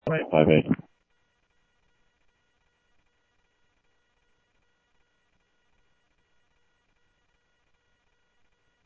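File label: Sheriff Lake Patrol, scanner audio trunk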